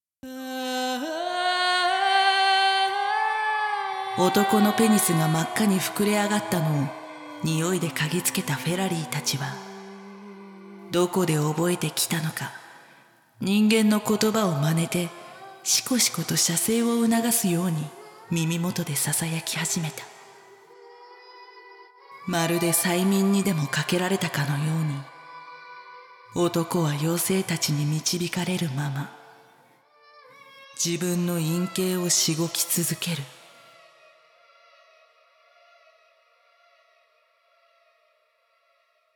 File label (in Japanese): ナレーション; 淫妖精の森